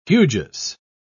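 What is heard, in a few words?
"hugeous", English, uk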